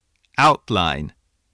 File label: "outline", English voice